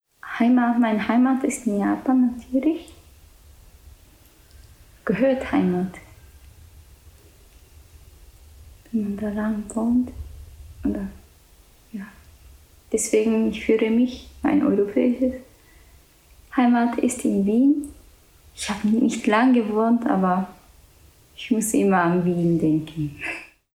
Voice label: japanisch

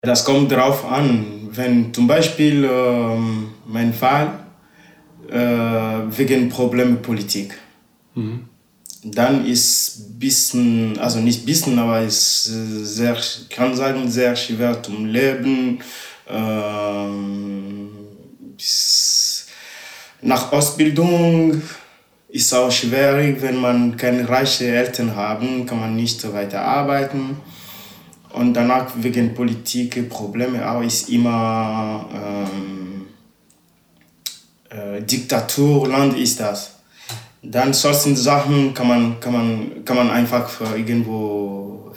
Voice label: ewe togo

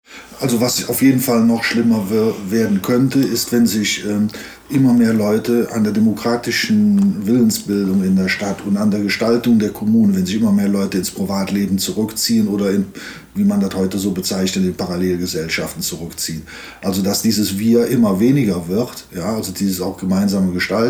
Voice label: koelsch

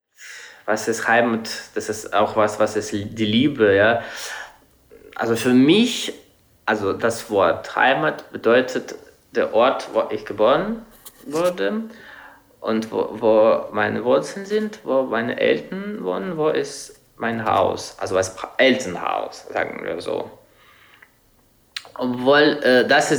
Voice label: russisch

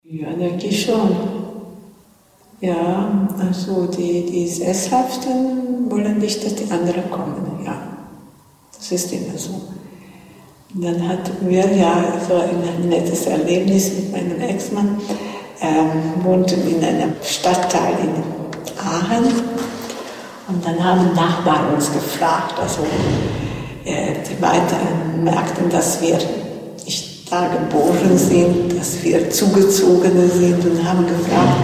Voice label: ungarisch

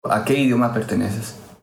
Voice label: spanisch guatemala

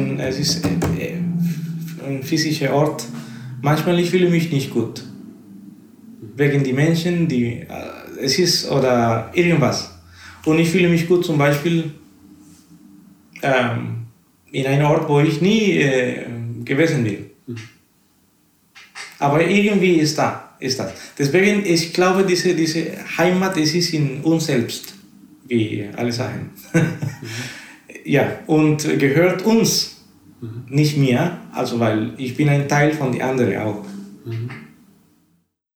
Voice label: spanisch costa rica

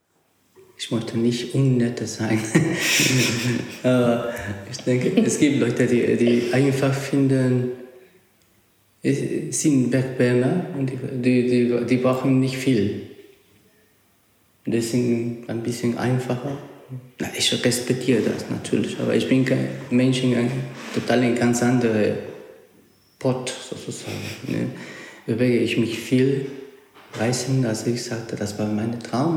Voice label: spanisch ecouador

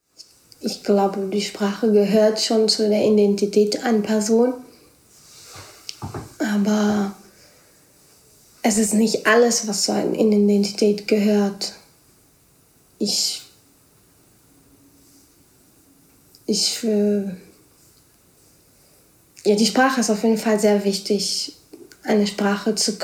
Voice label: portogiesisch brasilien

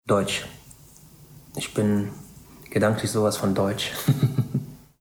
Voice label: kroatisch

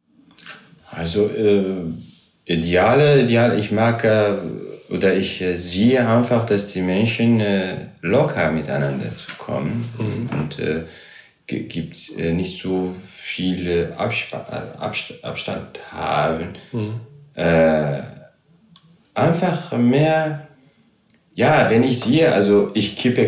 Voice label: arabisch syrien

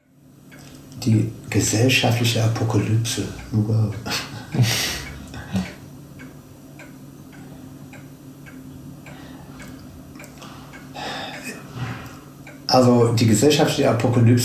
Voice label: us englisch

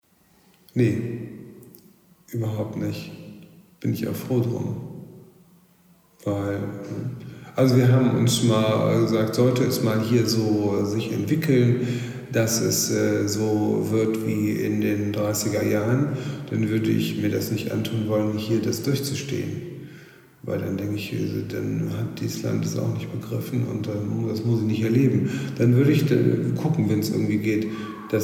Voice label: deutsch

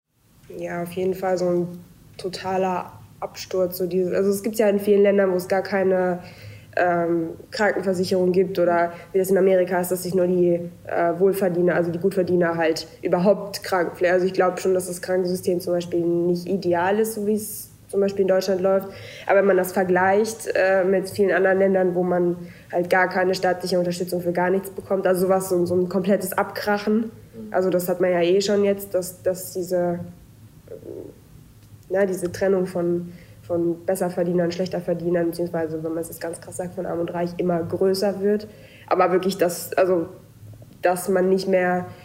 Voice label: finnisch schwedisch finnland

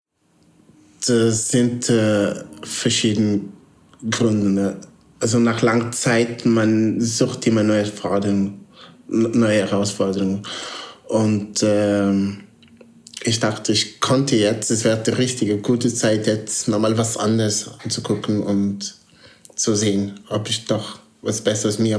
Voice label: portugiesisch mosambik

